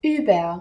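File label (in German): "über", German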